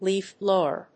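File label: "leafblower", English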